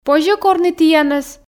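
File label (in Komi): позьӧ корны тіянӧс, Komi, female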